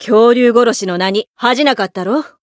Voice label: Voice win, jp